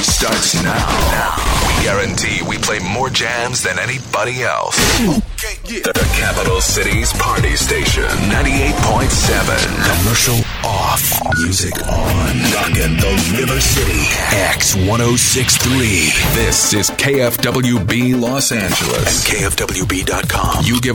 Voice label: Male 30's-50's, Powerful is the best way to describe delivery!; Imaging Demo